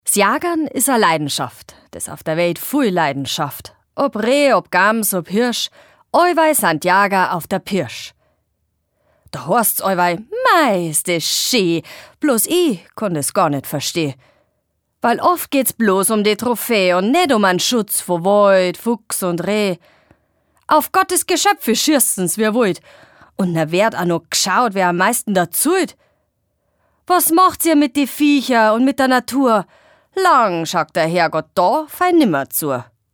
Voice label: Dialekt Bayerisch

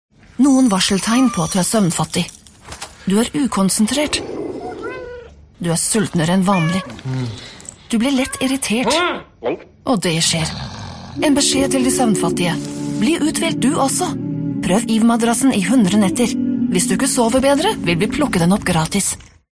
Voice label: Witzig

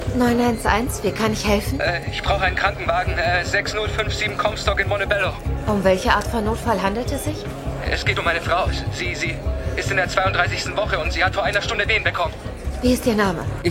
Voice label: Energisch

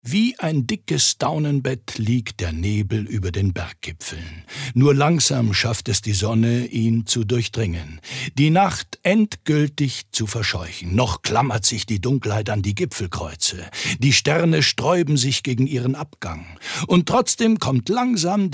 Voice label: Schauspiel Variabel

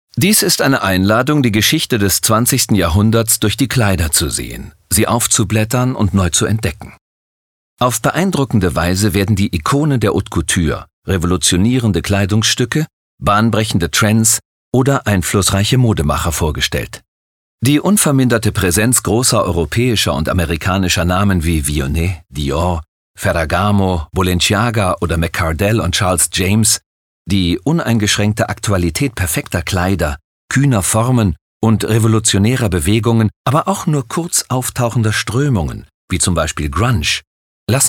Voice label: Kommentar Neutral

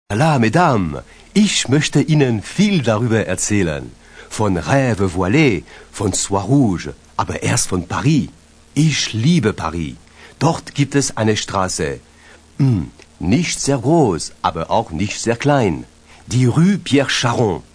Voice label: Akzent Franzoesisch

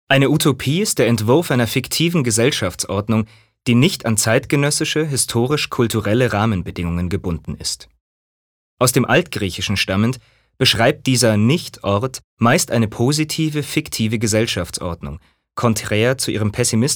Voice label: Neutral

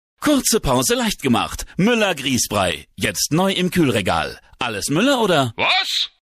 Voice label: Freundlich

